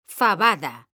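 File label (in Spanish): "fabada", Spanish; mekanta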